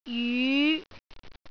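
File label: "jyu5", Cantonese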